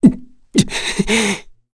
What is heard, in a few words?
Vox Sad kr; heroes; Kain; kings raid